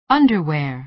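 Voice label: "underwear", American English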